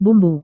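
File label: "bumbu", Indonesian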